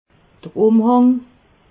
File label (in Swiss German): "umhang", alsace